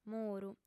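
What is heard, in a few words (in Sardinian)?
"muru", female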